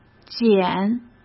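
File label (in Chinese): "拣", Mandarin Chinese; chinese-flashcards; hsk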